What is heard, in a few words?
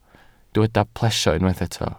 "plesio", welsh